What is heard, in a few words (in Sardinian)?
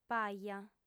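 "paja", female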